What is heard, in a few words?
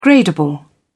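"gradable", English, British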